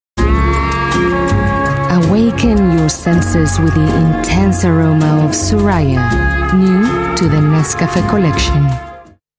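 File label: Spanish Latin American, Accented English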